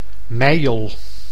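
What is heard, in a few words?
"Meijel", Dutch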